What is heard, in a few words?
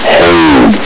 "hold", combine soldier; hl2